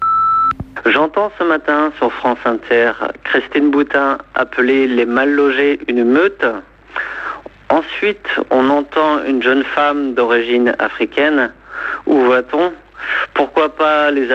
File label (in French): repondeur; Christine Boutin et sa meute de mal loges